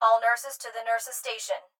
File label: Hospital Pa Voice; Blastwave